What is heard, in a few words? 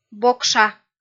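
"бокша", Komi-Zyrian, female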